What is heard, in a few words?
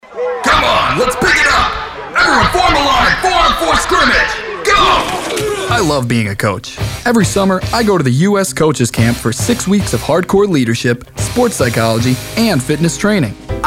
Commercial